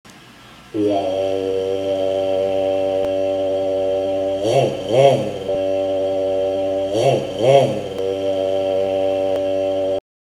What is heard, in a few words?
ferrari 458 impression